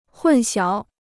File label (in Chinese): "混淆", Chinese